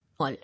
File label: "All", English